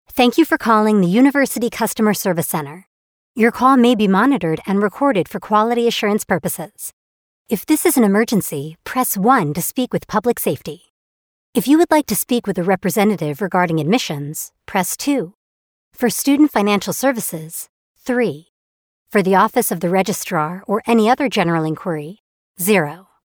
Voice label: us english ivr